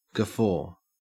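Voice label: "guffaw" Australian English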